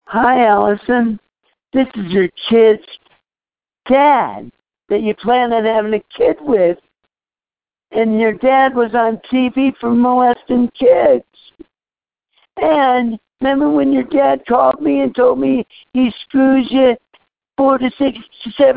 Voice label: voicemail